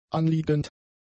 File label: "anliegend", German; adjectives